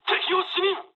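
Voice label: Japanese enemy detected mood low; War Thunder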